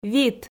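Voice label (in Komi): "вит", Komi, female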